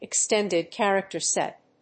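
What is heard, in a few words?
"extended character set", English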